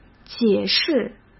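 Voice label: "解释", Mandarin Chinese; hsk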